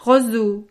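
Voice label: "roseau", French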